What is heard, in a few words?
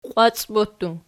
"qiwacbottu", Archi